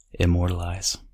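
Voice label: "immortalize", US English; pronunciations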